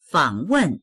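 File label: "fang3wen4", Mandarin Chinese; 访问; fǎngwèn; 訪問する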